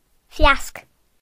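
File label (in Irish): "wreath", Irish; gcompris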